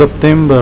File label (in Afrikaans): "september", Afrikaans